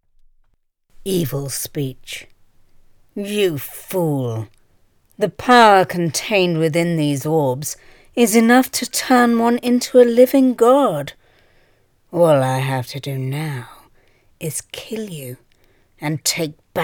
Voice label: Evil Speech